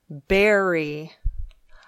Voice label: "bury", English